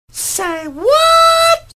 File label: Say WHAAAT Sound Effect